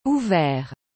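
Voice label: "ouvert", French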